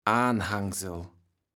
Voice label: "Aanhangsel", platt